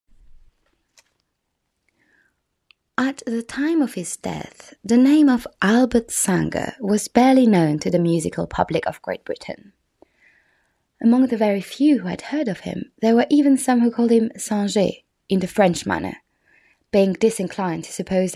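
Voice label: female voice, English accent, Georgian; GoLocalise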